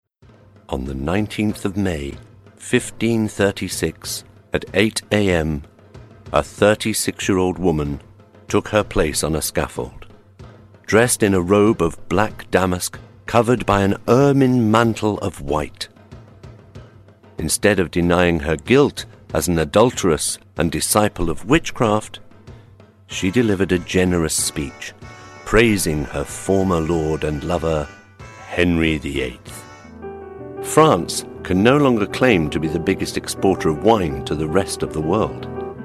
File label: English UK